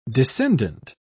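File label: "descendent", British English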